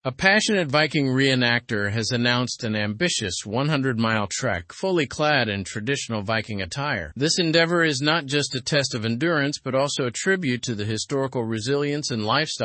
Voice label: learn english through news advanced; viking re enactor embarks on historical 100 mile trek